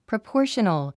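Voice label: "proportional", English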